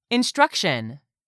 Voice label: "instruction", English; 3000 words